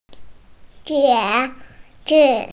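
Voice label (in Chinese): "qie2zi5", Mandarin Chinese, female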